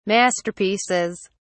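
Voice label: "masterpieces", British English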